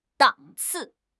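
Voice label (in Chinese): "档次", Chinese; HSK6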